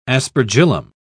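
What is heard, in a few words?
"aspergillum", English, British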